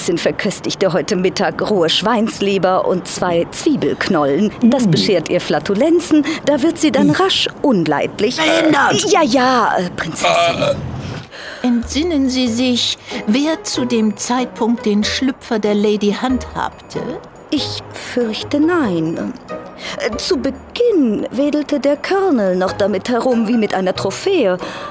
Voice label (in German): hoerspiel drastisch; helga